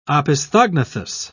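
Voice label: "opisthognathous", British English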